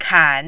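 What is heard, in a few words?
"kan", Mandarin, third tone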